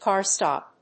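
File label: "CAR STOP", English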